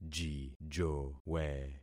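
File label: "jijowe", concatenated syllables; Affixing experiment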